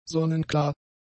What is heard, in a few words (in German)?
"sonnenklar", german; adjectives